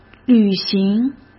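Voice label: "履行", Mandarin Chinese; chinese-flashcards; hsk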